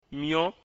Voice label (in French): "myon" ouvert, mina